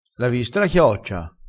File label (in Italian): "spollinassi", crespinese